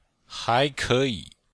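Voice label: "hai2ke3yi3", Chinese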